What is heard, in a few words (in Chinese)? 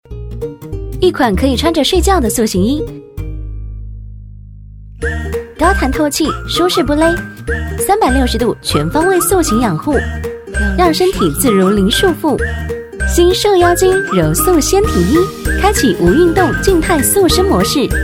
女 广告 时尚塑身; 淘宝产品